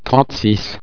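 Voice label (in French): "trente six", French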